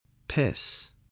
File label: "piss", voice, English